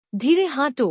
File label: "walk slowly", bangla; Basic Greetings and Courtesy